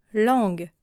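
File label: "langue", French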